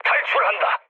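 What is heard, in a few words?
Korean bailout mood low, speech; War Thunder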